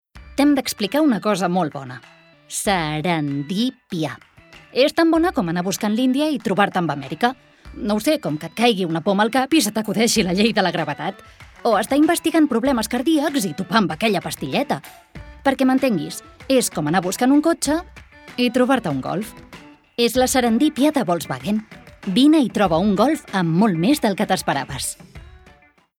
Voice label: female catalan comercial; ideasonora